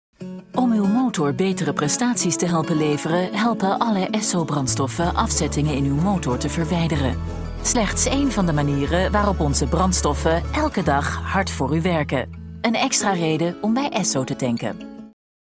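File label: Dutch Commercial; GoLocalise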